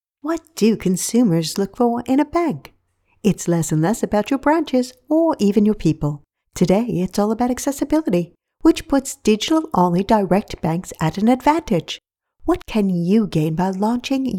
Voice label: Brit Banking